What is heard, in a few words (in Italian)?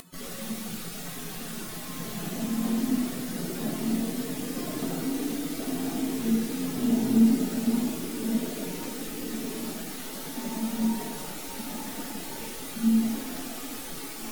parlata con urlo finale; Castello Monselice